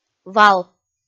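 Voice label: "вал", Komi-Zyrian, female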